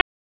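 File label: Raleigh Police North District Tactical, p25; wake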